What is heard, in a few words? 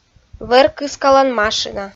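"вӧр кыскалан машина", Komi, female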